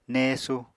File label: "néesu", Apatani